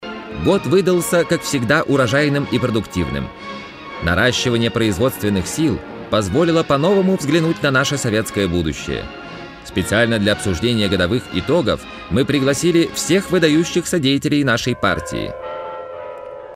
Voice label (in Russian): golos sovetskiy